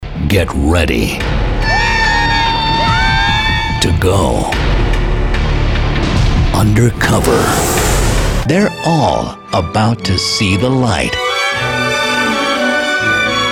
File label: TRAILERS